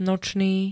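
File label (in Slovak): "nočný", Slovak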